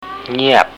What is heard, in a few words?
"ngiab", Thai